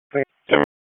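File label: Raleigh Police Northeast District Dispatch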